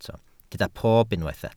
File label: "pob", Welsh